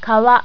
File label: "kawa", japanese